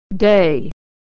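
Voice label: "day", American English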